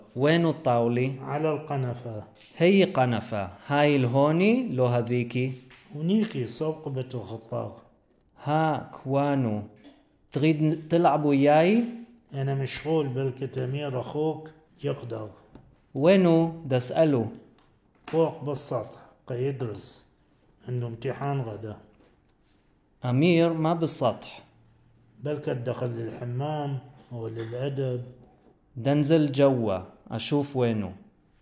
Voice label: Baghdadi Judeo-Arabic; Audio recording 6.1.2 to accompany section on Lesson 6: Inviting someone to eat, Locations and Transportation; (this or that?)